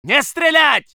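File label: voice soviet infantry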